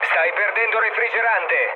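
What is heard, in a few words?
Italian damaged water mood low, speech; War Thunder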